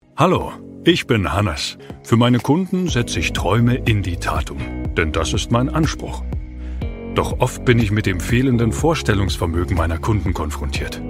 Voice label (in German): Hoerprobe Erklaervideo; Dein Planungszentrum